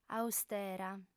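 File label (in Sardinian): "austera", female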